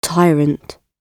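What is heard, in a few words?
"tyrant", British English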